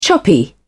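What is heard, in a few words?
"choppy", British English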